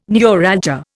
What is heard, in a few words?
"neuralgia", Indian